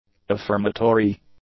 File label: "affirmatory", English; adjectives